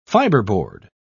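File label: "fibreboard", English, British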